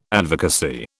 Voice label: "advocacy", British